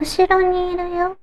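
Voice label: "ushironiiruyo", girl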